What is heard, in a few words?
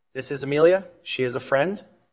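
VoiceActing es M2, voice acting, Spanish, male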